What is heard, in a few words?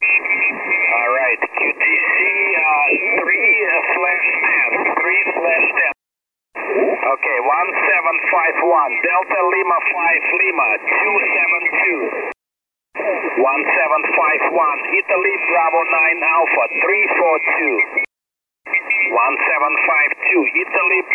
ssb, beginner; Training QTCs; QTC list